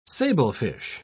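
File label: "sablefish", British English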